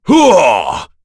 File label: Vox Skill, en; Bernheim; kings raid; voices heroes en